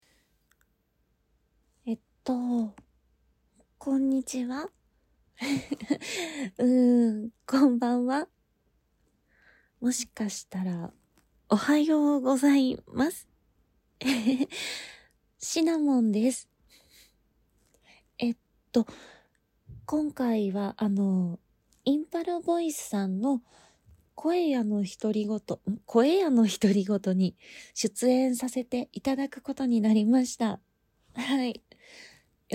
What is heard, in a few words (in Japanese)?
ノイズ除去 自己紹介から質問まで